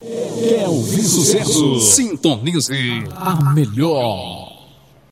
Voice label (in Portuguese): que ouvir sucesso sintonize a melhor sem fundo voz